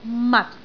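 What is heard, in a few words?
"mat6", Cantonese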